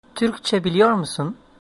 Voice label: do you speak, turkish